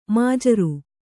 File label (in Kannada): "ಮಜೂರ", Kannada